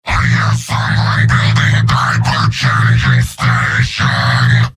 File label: Heavy taunts; Giant Robot lines from MvM